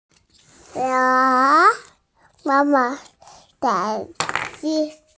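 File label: "ke ai", Mandarin Chinese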